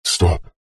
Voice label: science fiction computer console voice robotic male says stop; zapsplat